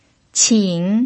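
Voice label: "qin", pinyin, tone 3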